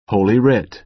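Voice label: "holy writ", American English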